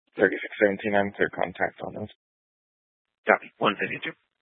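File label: Police Dispatch, Scanner audio; Police 1 Dispatch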